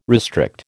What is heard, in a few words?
"restrict", American